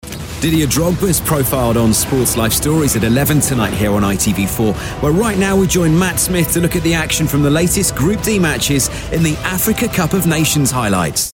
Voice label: TV Continuity

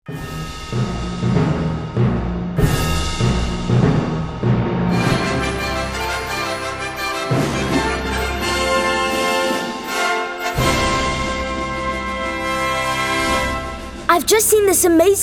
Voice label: Natural; Science Museum

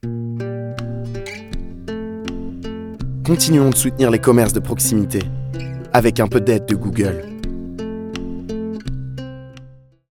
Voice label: French; Google

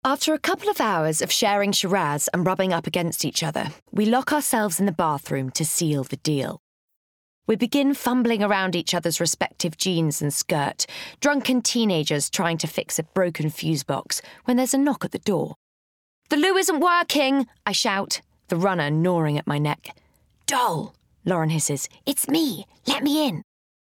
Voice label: Bookread; Everything I Know About Love

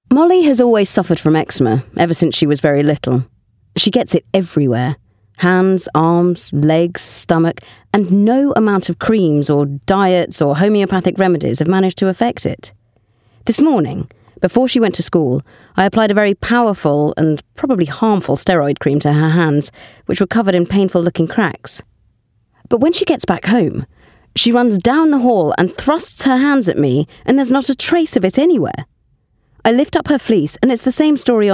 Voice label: Audiobook; How To Be Good

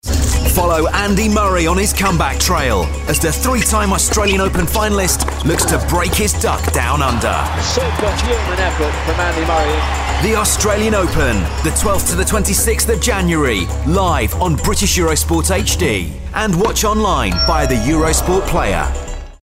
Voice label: TV Promo; Eurosport

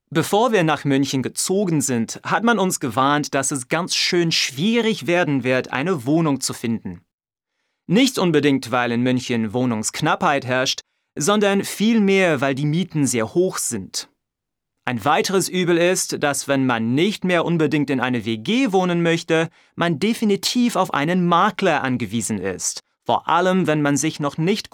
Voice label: German; German Language

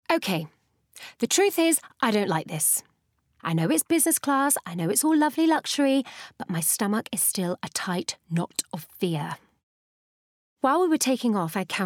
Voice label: Bookread RP

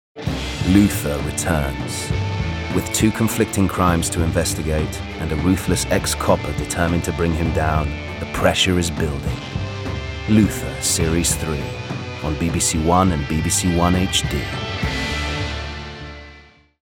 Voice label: Promo, voice over; Luther

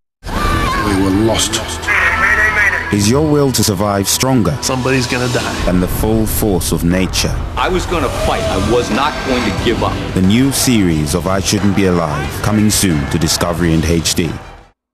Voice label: Promo informative straight

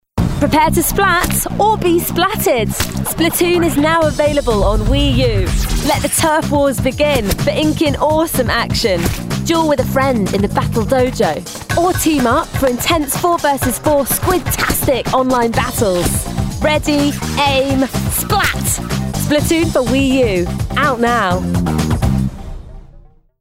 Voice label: Commercial; Splatoon

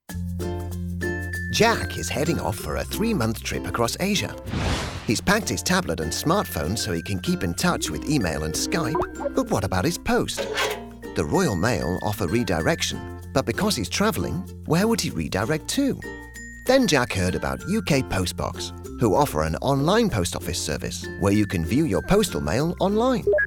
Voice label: UK

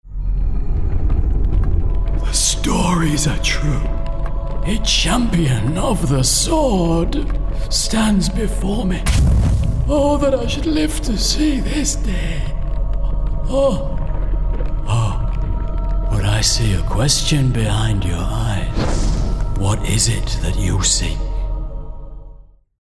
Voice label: Gaming Character Wise Man Yorkshire

